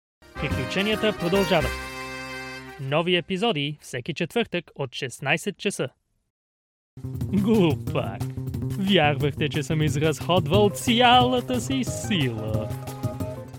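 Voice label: Bulgarian commercial; GoLocalise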